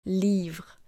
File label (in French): "LIVRE", French; masculine feminine